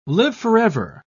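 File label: "live-forever", British English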